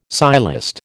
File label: "sciolist", American